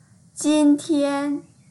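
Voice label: "jin1tian1" Mandarin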